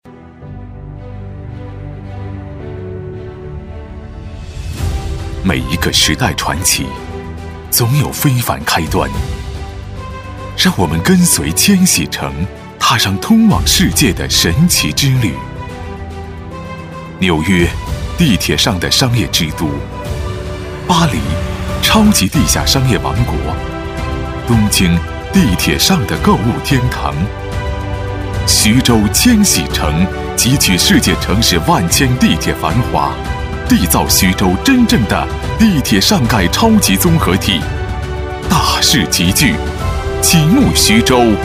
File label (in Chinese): 国语男, 地产有力; 千禧城